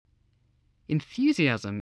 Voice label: "enthusiasm", voice, english